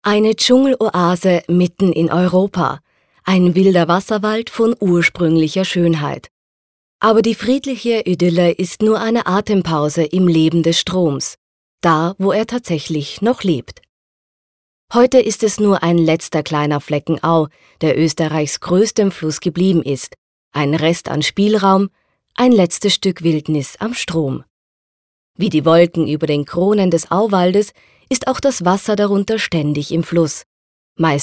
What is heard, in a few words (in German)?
Doku